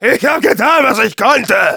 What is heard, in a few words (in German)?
jeers; Demoman